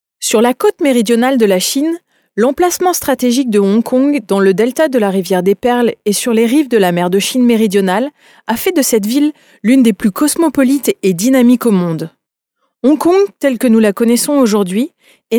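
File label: French female corporate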